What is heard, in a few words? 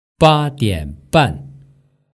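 "badianban", Mandarin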